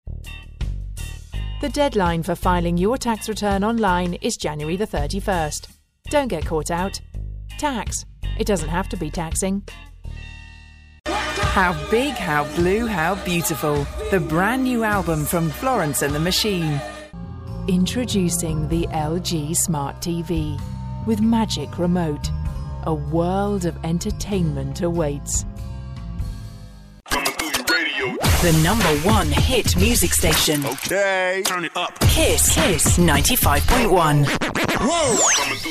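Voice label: english british female narration; voice demos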